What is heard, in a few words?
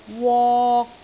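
"wok3", Cantonese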